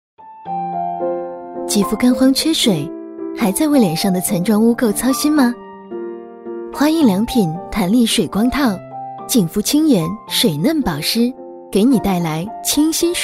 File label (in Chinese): 女 广告 化妆品; 国语女声配音